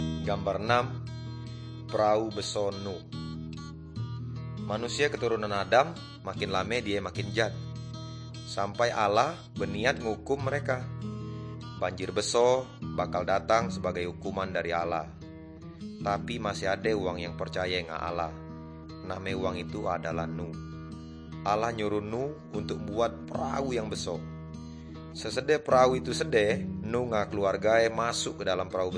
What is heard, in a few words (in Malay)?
good news melayu tanjung raja; malay